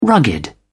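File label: "rugged", English, British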